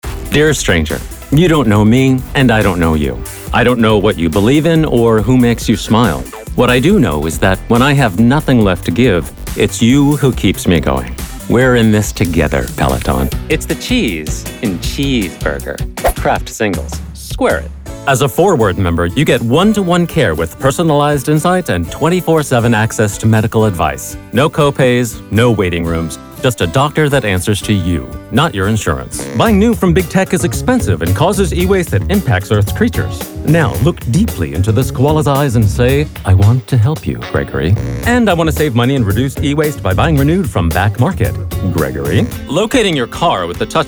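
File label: Commercial, voice actor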